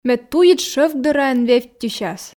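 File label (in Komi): мед туйыд шӧвк дӧраӧн вевттьысяс, Komi, female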